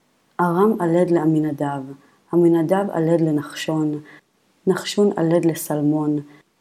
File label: Matthew Reading Practice, Aramaic